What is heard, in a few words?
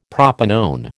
"propanone", American